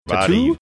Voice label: saying tattoo funny manner